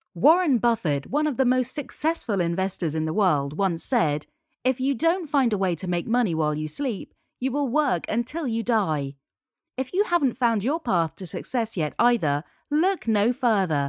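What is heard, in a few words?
english female; lektoring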